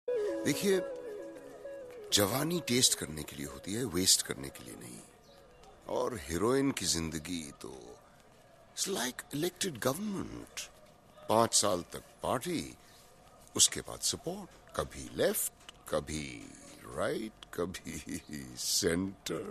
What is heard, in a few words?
Dirty Dialogue